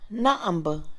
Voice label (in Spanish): ruido, Mixe, Mujer; Percepción; INALI